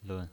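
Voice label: "lun", pinyin, Mandarin Chinese, neutral tone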